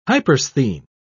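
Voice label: "hypersthene", British English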